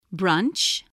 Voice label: "brunch", spelling